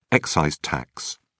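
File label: "excise tax", British English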